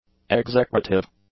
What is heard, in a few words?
"execrative", english; adjectives